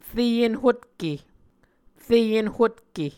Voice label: "re enhutke", Muscogee

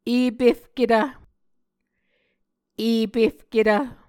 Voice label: "epefketv", Muscogee

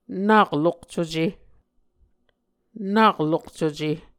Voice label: "nak lokcuce", Muscogee; Mvskoke Words with Voicing Consonants; A Dictionary of Creek/Muskogee; SIL International

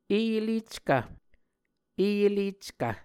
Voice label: "e eleckv", muscogee

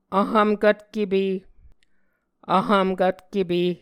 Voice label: "vhvmkvtkepe", Muscogee

